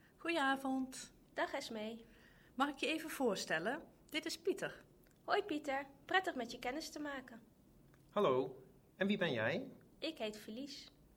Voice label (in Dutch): Dialoog